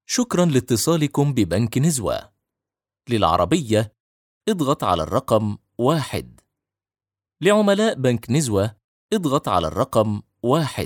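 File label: Arabic, male, IVR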